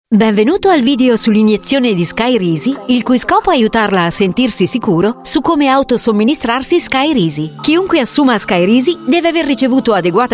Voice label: Italian